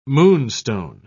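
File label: "moonstone", British English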